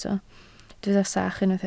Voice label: "sach", Welsh